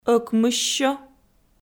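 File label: "ӧкмыссё", Komi-Zyrian, female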